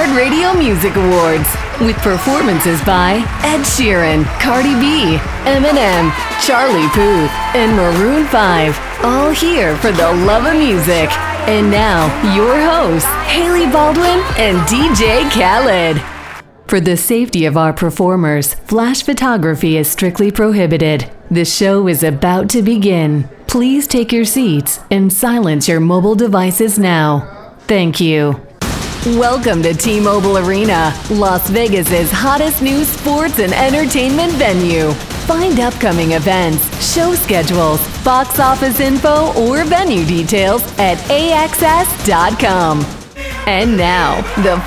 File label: Live Announce